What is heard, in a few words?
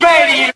"ready", male; Voice; introductions